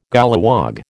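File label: "golliwog", American English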